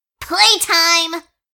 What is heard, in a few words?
Rage; awesomenauts